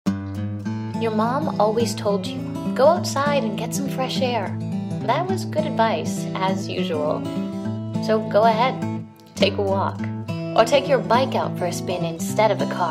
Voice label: Commercial; voiceover